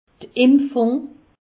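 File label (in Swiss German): "impfung", Alsatian; medecine; vaccination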